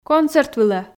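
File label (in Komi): концерт вылӧ, Komi, female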